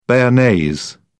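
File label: "bearnaise", British English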